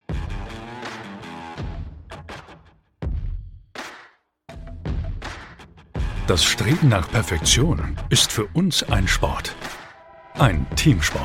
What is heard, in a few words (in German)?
audio nachbearbeitung verbesserungswuerdig